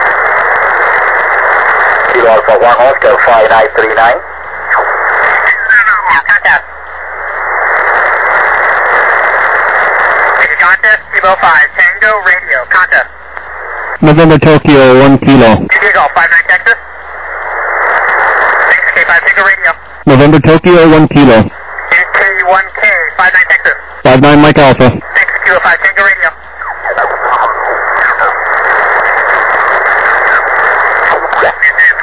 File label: USB 28MHz; ARRL10M